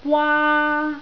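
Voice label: "waa1" Cantonese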